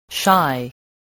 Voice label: "shy", spelling